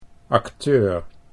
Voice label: "acteur", Dutch

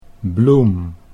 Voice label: "Bloem", Dutch

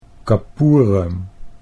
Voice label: "kapoerem", Dutch

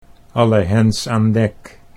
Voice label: "alle hens aan dek", Dutch

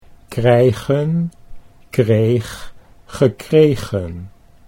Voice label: krijgen kreeg gekregen, Dutch

